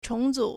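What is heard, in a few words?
"chóngzǔ", Chinese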